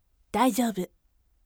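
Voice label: voice daijoubu firm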